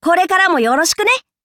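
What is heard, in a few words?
level up, Japanese; cookie run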